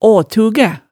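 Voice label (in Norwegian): "aatugæ", Hemsedal dialect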